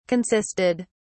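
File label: "consisted", English, British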